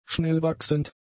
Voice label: "schnellwachsend", German; adjectives